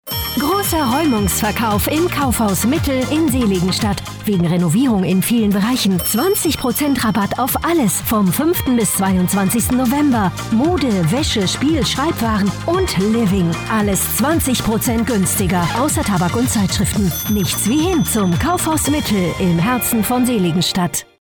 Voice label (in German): Freundlich